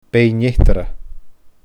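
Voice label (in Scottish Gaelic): "Beinn Eatarra", Scottish Gaelic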